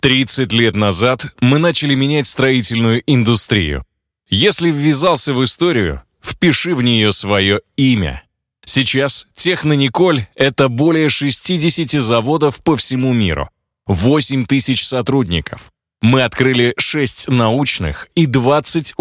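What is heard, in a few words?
ROLIK, advert; TechnoNikoly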